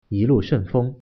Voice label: bon voyage mandarin